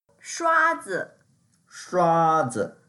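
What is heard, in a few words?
"shua1zi", Mandarin Chinese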